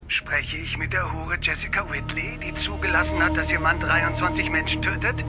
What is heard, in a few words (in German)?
Telefonstimme; Prodigal Son